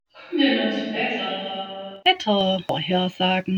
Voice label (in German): Jingel Wettervorhersagen allgemein